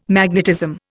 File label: "magnetism", Indian